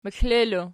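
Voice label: "meklelo" nominative, Archi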